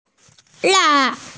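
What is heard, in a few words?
"la4", Mandarin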